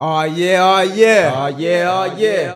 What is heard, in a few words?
"ah yeah ah yeah", voice